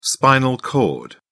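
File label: "spinal cord", British English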